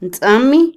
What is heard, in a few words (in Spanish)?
trampa, Otomí, mujer; INALI